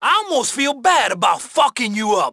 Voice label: cop traffic hispanic draw baton; GTA IV